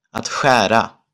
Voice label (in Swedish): "att skära", Swedish